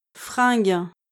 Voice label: "fringues", French